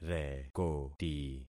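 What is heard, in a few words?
"rekoti", concatenated syllables; Affixing experiment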